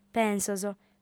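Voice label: "pensos", female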